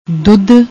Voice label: "dudh", Punjabi; ਦੁੱਧ